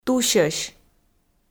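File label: "тусьӧсь", Komi, female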